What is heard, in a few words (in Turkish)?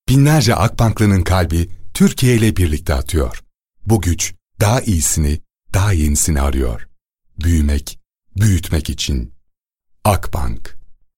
kurumsal imaj; enerjik tempolu